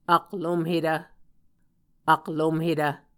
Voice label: "aklumhetv", Muscogee

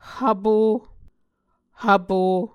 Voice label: "hvpo", Muscogee

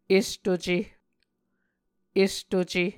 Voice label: "estuce", Muscogee

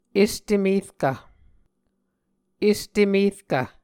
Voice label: "estemerkv", Muscogee

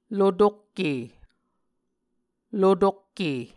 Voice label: "lotokke", Muscogee; Mvskoke Words with Repeated Consonants; Onvkv Ohhvyayices; A Dictionary of Creek/Muskogee; SIL International

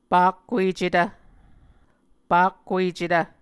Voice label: "pakkuecetv", Muscogee; Mvskoke Words with Repeated Consonants; Martin, Jack B., and Margaret McKane Mauldin. 2023. A Dictionary of Creek/Muskogee

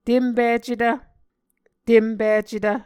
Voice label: "tempicetv", Muscogee

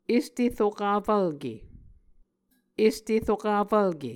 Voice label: "este rokafvlke", Muscogee; Mvskoke Words with Voicing Consonants; A Dictionary of Creek/Muskogee; SIL International; Onvkv Ohhvyayices

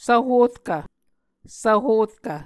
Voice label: "svhorkv", Muscogee

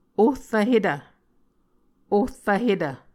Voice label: "ohrvhetv", Muscogee

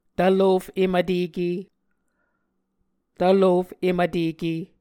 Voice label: "tvlof-em-vteke", muscogee

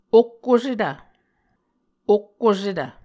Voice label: "okkosetv", Muscogee; Mvskoke Words with Repeated Consonants; Martin, Jack B., and Margaret McKane Mauldin. 2023. A Dictionary of Creek/Muskogee

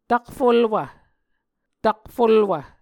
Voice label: "takfulwv", Muscogee

